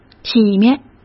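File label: "体面", Mandarin Chinese; chinese flashcards; hsk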